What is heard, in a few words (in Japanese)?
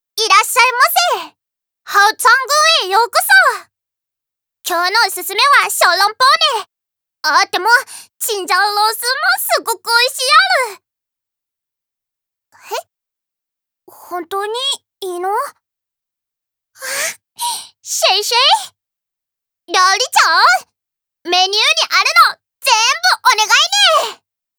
10代女の子